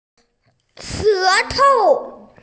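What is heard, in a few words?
"shé tou", Mandarin Chinese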